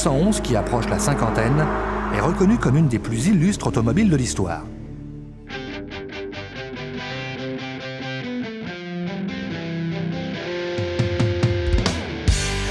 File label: voiceover audioguide porsche 911